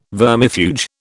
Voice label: "vermifuge", British